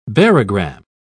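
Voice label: "barogram", British English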